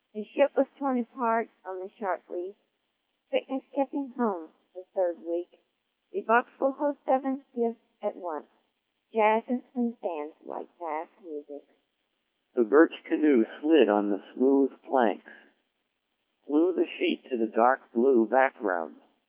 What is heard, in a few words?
codec2 1400